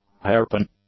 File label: "hairpin", English; nouns